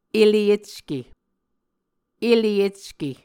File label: "ele ecke", muscogee